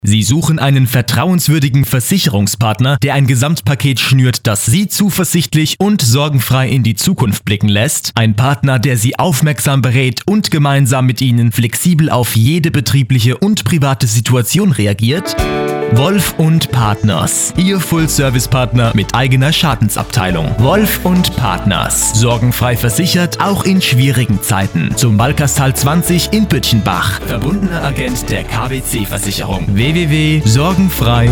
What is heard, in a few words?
radiospot